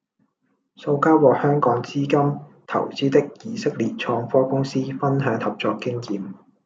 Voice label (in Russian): Голоса, Гонконгский